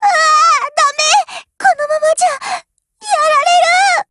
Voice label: BREAK JP; GF Alarm; M1A1